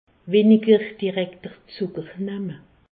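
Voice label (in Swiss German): "direkti zucker", Alsace, Haut Rhin; diminuer les sucres simples; medecine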